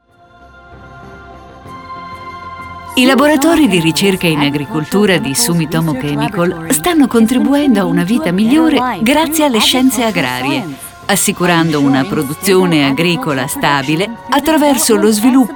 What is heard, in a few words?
VOICEOVER; SUMITOMO